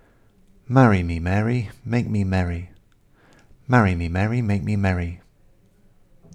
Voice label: english mary; phon lab